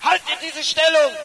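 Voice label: german hold, player; video games; source engine; dod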